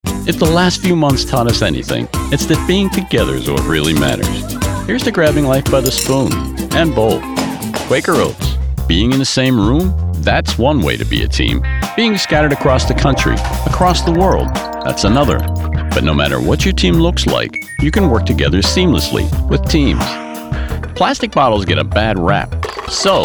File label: Commercial